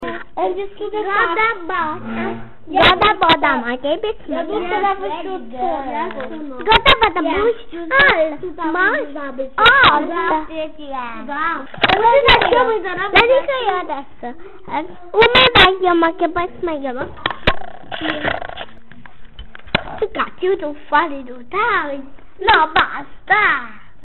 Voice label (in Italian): Conversazione tra bambine nella "Lingua ringhia" da loro inventata; Durante il gioco spontaneo, alcune bambine della sezione, inventano un linguaggio speciale; Ascolta il dialogo tra bambine in "lingua ringhia"; Piccolissimo dizionario della lingua ringhia